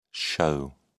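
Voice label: "shew", British English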